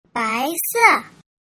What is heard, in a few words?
"bai se", Mandarin